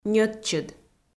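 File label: "ньӧтчыд", Komi, female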